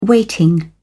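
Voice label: "weighting", British English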